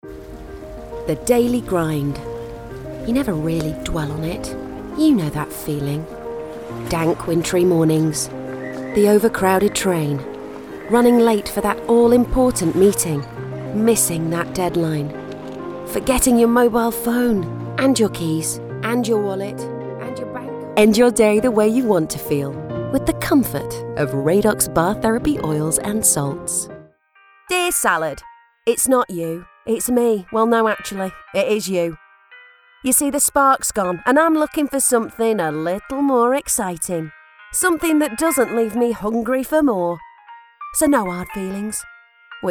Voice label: Commercial Montage

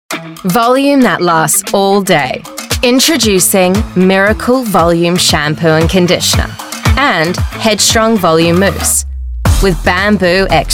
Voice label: Aussie; Hair

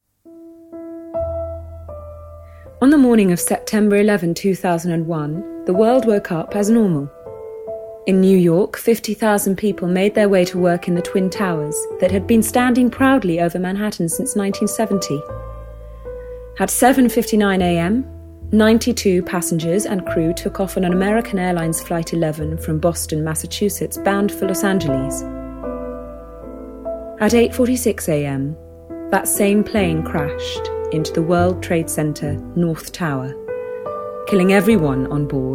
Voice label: Narrative; September 11th 2001

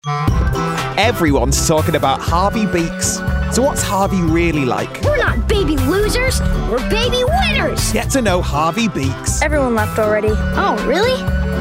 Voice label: PROMO; Harvey Beaks

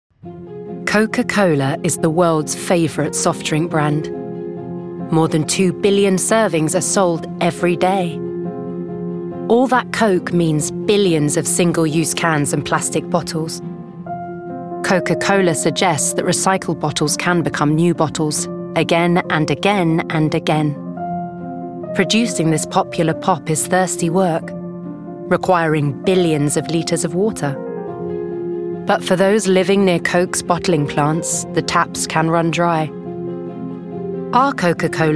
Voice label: Documentary; Coca Cola

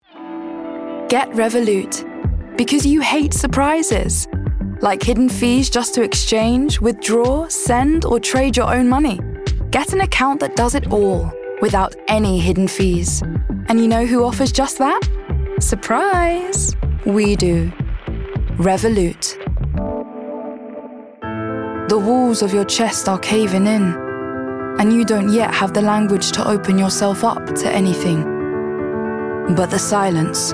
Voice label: commercial

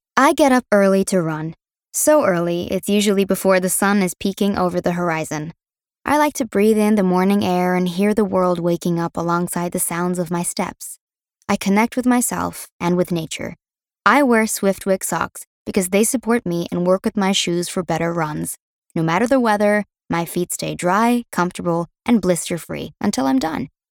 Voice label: natural read USA commercial

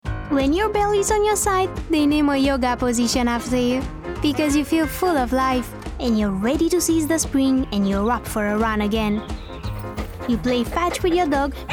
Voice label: Commercials

